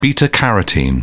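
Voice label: "beta-carotene", British English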